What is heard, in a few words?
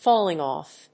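"falling-off", English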